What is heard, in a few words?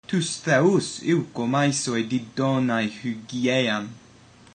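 get well soon, ancient Greek; Τοὺς θεοὺς εὔχομαί σοι διδόναι ὑγίειαν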